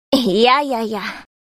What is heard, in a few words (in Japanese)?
エモーション（否定）; 「いやいやいや。」